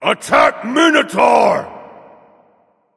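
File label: vox attack, male; smite; osiris; voicepack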